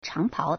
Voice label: "chang2pao2", Chinese